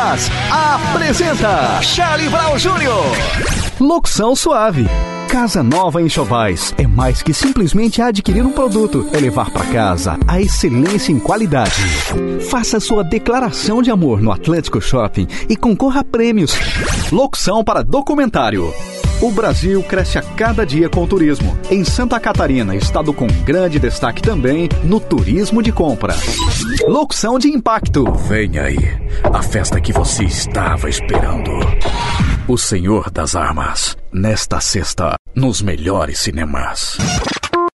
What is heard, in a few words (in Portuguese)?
locutor, vozes masculina